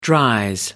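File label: "dries", British English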